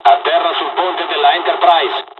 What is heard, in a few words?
landing, dialogs italian; midway us; War Thunder